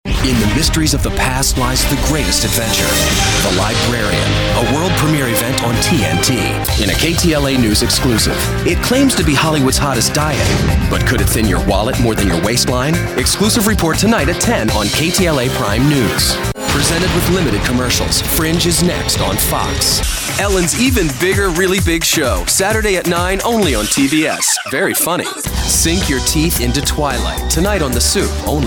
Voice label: US, promo